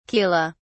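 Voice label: "chela", British English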